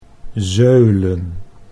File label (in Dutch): "zeulen", Dutch